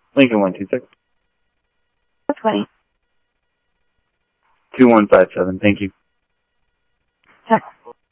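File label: Sheriff East County, scanner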